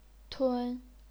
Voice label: "tun1", chinese pinyin; 吞; 暾